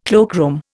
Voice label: "cloakroom", Indian